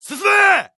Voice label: Jp Neutral